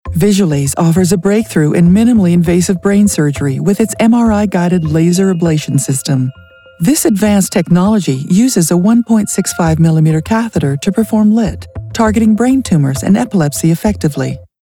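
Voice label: Medical Voiceover, female voice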